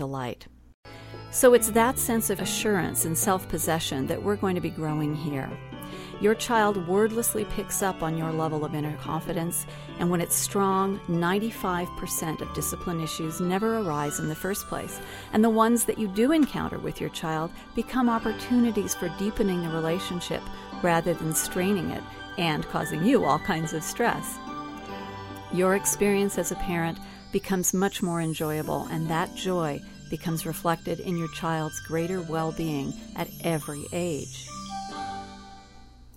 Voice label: Calm Authority